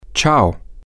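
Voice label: ciao, Spanish, male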